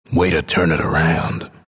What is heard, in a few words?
announcer victory; dlc bastion